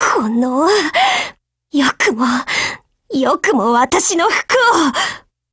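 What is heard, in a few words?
Japanese, Voice; BREAK; GF Alarm; PzB39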